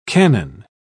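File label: "cannon", English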